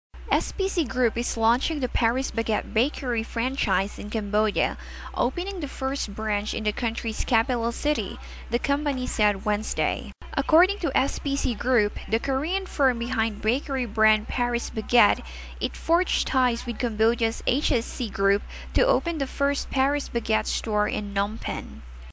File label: English News